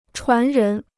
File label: "传人", Chinese